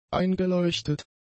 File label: "eingeleuchtet", German; adjectives